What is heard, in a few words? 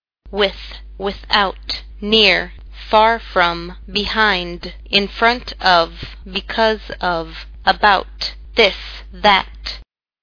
english; words